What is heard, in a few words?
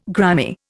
"grimy", Indian